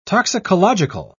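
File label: "toxicological", British English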